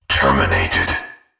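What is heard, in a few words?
"terminated", synthesized voice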